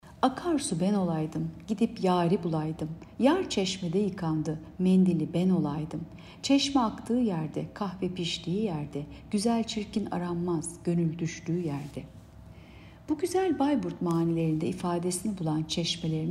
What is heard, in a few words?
turkce